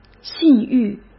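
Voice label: "信誉", Mandarin Chinese; chinese flashcards; hsk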